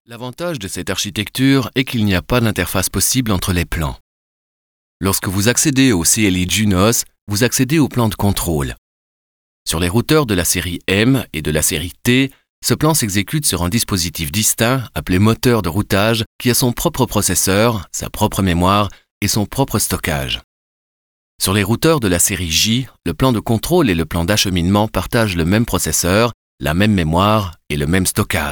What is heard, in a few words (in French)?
ECHANTILLONS E-LEARNING, voix française; Juniper Networks